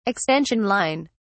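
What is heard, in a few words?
"extension line", British English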